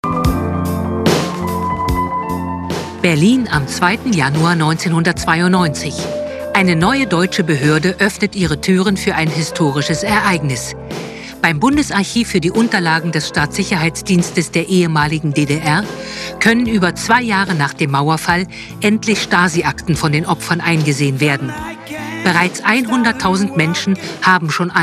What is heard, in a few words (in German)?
Reportage; Die Spezialisten